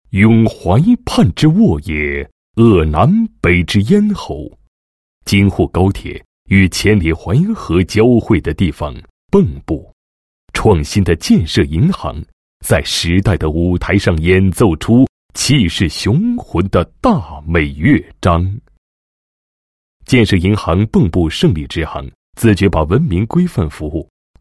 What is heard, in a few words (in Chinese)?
男 专业专题 宣传介绍; 南昌银行支行宣传介绍